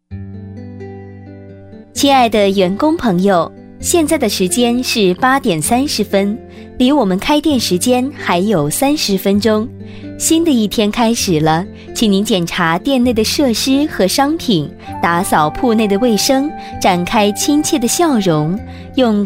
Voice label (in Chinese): 女 广告彩铃 温馨自然; 服装城营业前; 知性大气; 甜美亲切